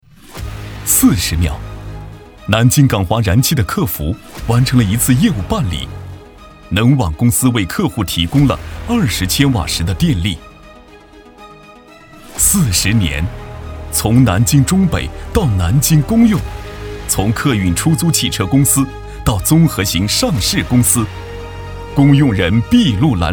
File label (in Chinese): 男 专题 年轻大气